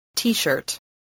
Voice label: "t-shirt", English